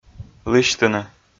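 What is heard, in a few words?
"лысьтыны" Komi, male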